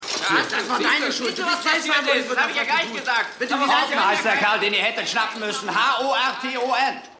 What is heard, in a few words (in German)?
Junge, Stimmproben